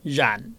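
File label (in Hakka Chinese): "rhan4", 客語 海陸腔; 煙; 圓; 遠; 院; 縣; •部分地區讀為rhan。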